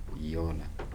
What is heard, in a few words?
"to be cool", Kibembe